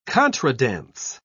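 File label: "contredanse", British English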